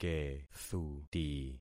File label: "kethuti", concat syllables; Affixing experiment